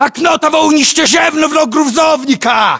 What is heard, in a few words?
landmark near truck destroyed, ru; localized english